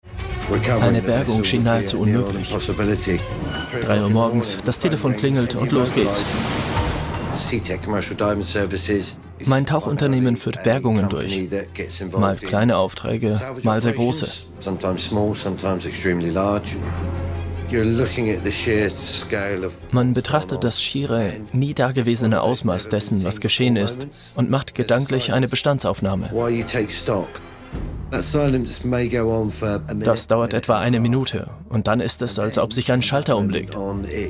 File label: Voiceover; Mission Schiffsbergung - ZDF